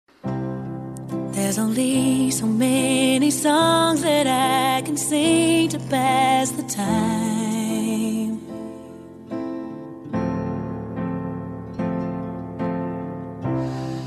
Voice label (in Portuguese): MENSAGEM DE AMIZADE FEM